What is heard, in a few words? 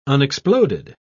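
"unexploded", British English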